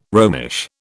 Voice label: "Romish", British